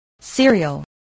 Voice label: "serial", spelling